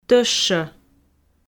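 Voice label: "тӧщӧ", Komi, female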